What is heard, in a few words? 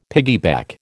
"piggyback", American